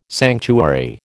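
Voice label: "sanctuary", American English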